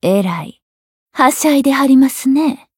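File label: Voice encounter fear Japanese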